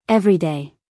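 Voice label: "everyday", American English, female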